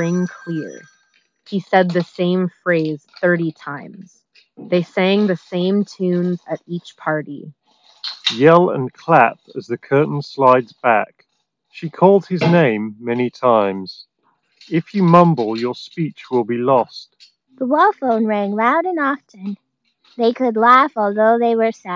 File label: Office microphone sample; Razer Hammerhead Pro Hyperspeed BT